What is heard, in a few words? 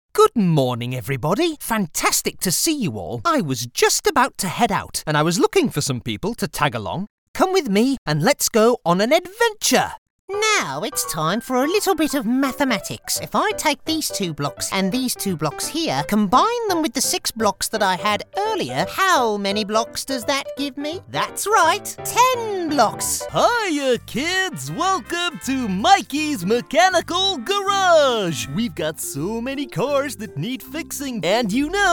Childrens